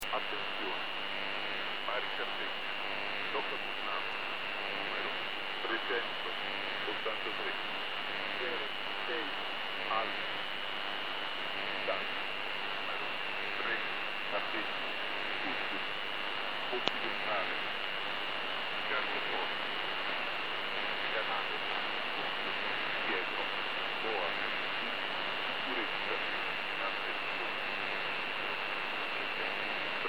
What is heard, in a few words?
USB Porto Torres Radio